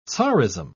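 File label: "tsarism", British English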